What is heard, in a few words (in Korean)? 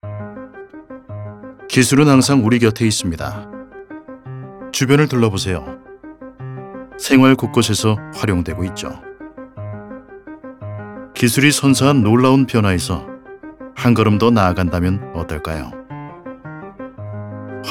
명확한 IT박사 캐릭터느낌; 마이크로소프트